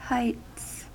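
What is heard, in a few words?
"heights", US English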